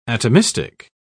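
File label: "atomistic", English, uk British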